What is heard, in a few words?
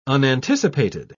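"unanticipated", British English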